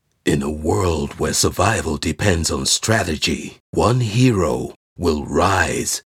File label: Gaming Storytelling and Cinematic